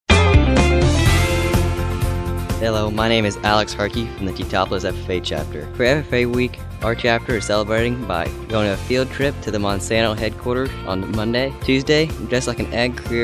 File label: male; Teutopolis FFA